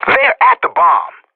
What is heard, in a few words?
Professional Radio bot bomb defusing; Counter-Strike: Global Offensive